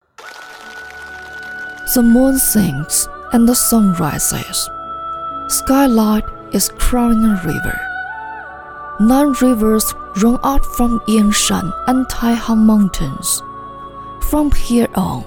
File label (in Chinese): 女 英文宣传